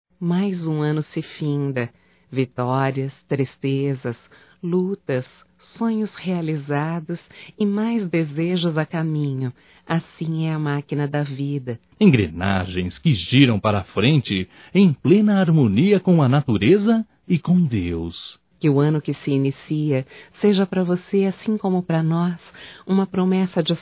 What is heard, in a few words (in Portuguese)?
Ano Novo Duas Vozes, Telemensagens; Natal & Ano Novo; Telemensagens 2017